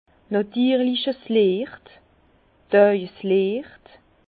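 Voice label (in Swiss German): "natirlich", Alsace Bas Rhin; vin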